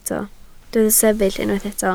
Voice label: "sefyll", Welsh

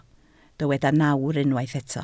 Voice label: "nawr", Welsh

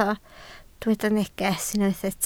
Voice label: "neges", Welsh

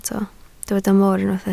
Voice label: "mor", Welsh

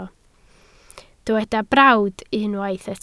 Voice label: "brawd", Welsh

